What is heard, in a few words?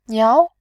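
"niao", Mandarin, tone 2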